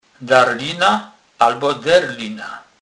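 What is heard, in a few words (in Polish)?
"darlyna", Kurpie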